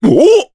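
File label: Vox Japanese; kingsraid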